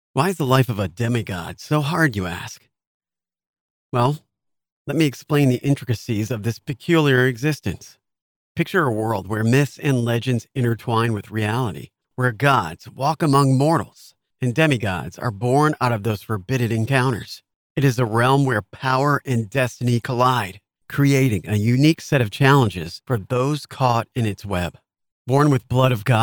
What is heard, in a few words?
Audiobook